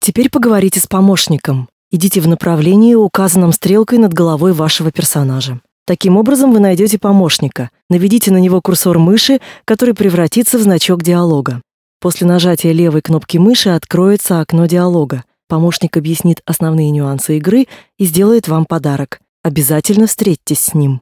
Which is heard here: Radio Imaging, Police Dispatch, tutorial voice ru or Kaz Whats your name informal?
tutorial voice ru